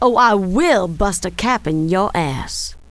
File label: cuss, female, profanity; kingpin loc